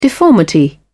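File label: "deformity", British English, dictionary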